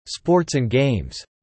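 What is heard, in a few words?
"sports and games" spoken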